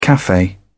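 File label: "cafe", English, British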